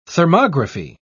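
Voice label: "thermography", British English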